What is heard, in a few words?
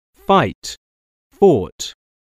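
"fight", English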